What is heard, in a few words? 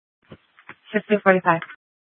Sheriff, scanner; Shrf Lakes